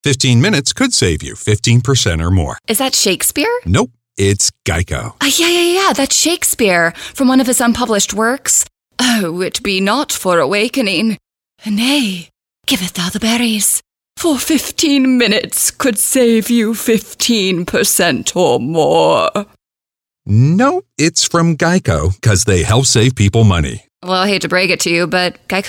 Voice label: commercial women

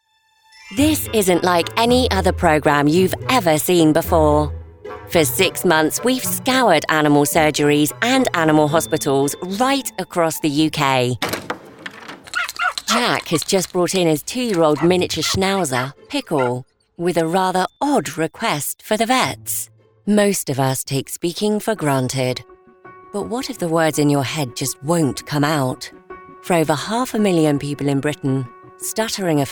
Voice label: narration women UK

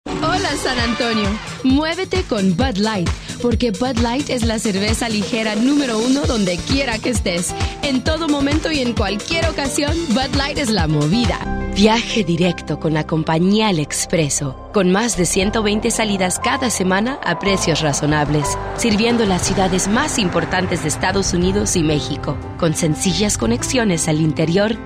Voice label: languages spanish commercial

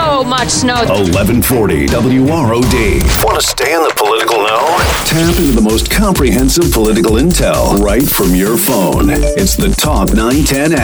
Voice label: radio men news; radio imaging

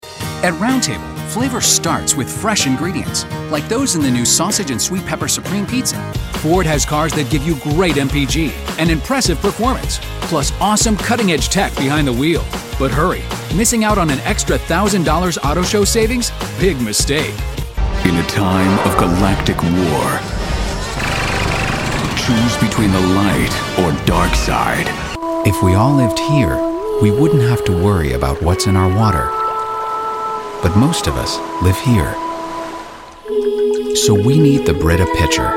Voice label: commercial men

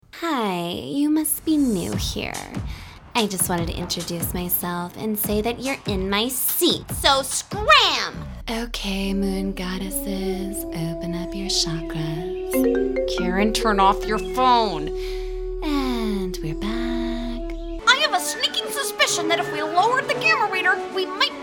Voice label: animation women

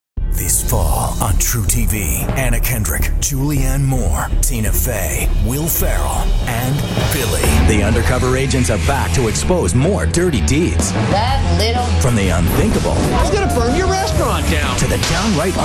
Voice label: promo cable, men

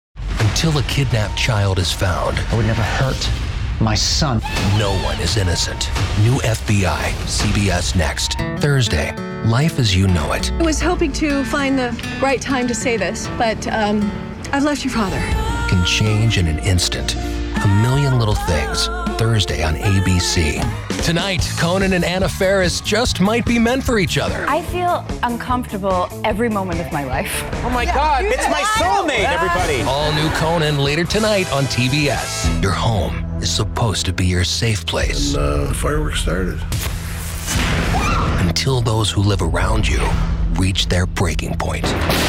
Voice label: promo men entertainment

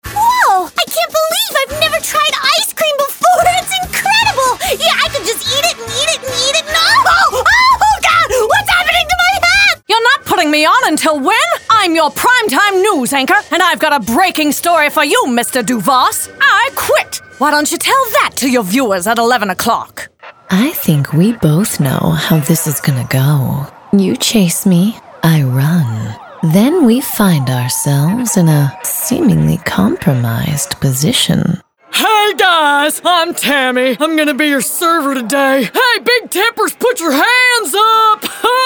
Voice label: animation female

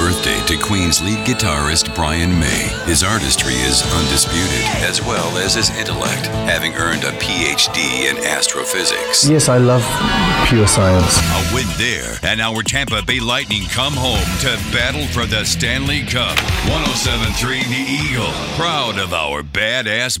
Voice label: radio classic hits classic rock, radio imaging

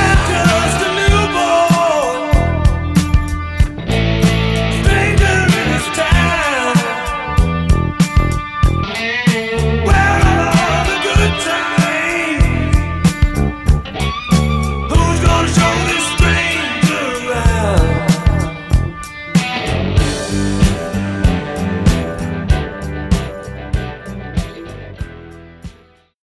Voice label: radio classic rock; radio imaging

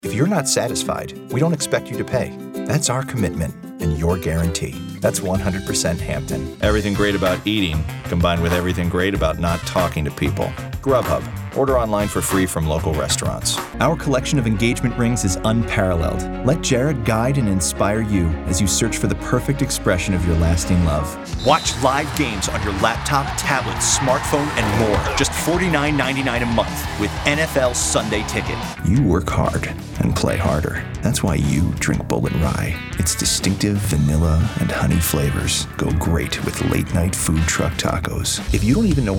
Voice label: commercial men

